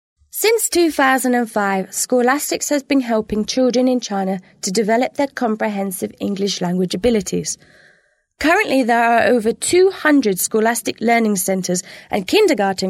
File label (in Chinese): 英式女声